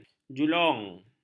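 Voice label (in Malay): "julung", Malay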